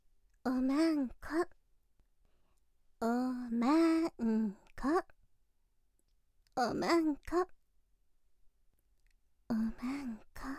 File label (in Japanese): 耳が幸せボイス; 【サンプル】淫語でオナてつ〜お姉さんのオナてつボイス〜